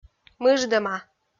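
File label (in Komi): "мыждӧма", Komi, female; kpv audio dict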